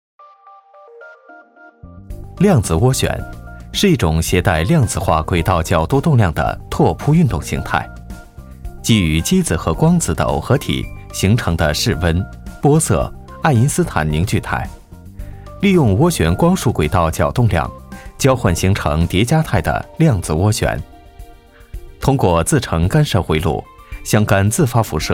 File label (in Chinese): 男 科技感